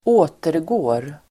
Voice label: "återgår", Swedish